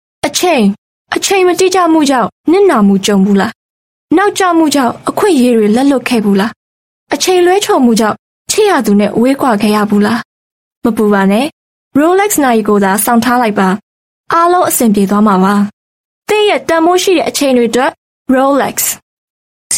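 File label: Burmese Female TVC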